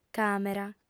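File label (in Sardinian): "càmera", female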